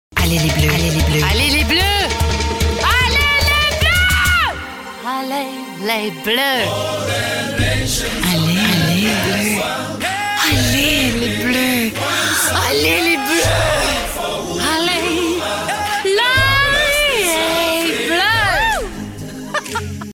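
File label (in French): pub foot voix off, voix off femme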